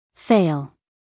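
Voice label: "fail", American English, female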